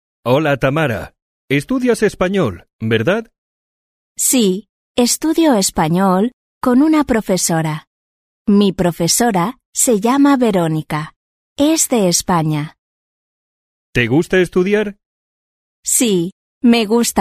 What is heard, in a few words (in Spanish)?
leccion dialogo